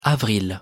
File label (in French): pronunciation fr "avril"